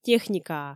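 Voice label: "техникаа", Komi, female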